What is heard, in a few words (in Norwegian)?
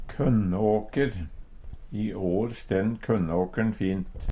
kønnåker, Numedalsmål; Substantiv hankjønn